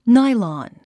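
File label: "nylon", English; 発音 náilɑn ナイロン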